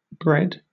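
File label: "bred", British English; pronunciations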